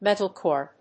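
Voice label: "metal-core", English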